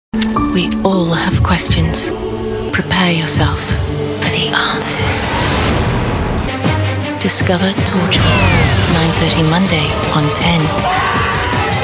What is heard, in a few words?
promo; EM Voices